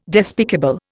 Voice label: "despicable", Indian